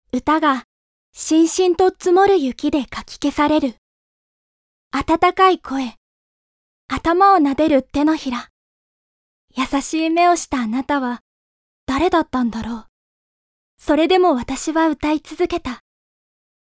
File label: vo mono